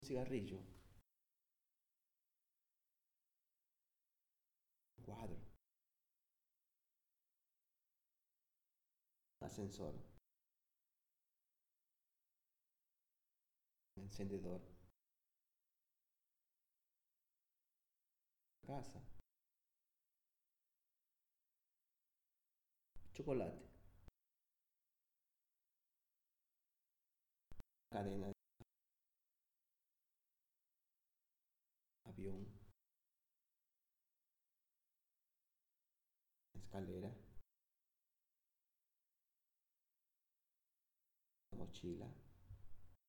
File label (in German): Zusammengefügte Wörter Langsam Spanisch